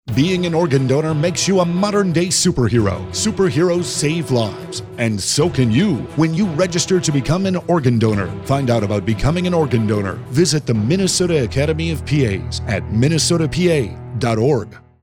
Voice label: ORGAN DONOR PSA